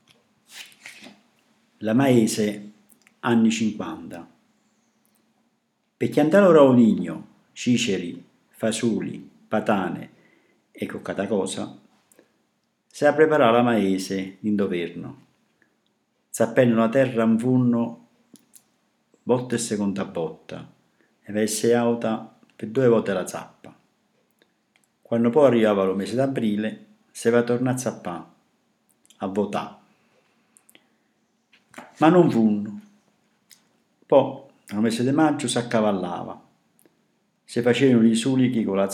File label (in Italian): La maiese dialetto